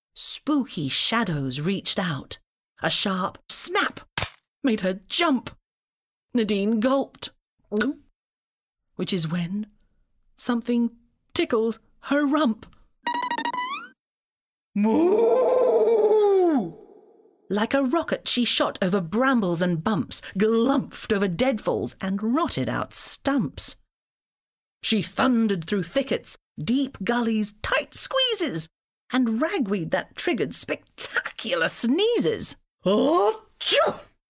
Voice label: childrens book read